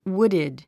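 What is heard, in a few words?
"wooded", English word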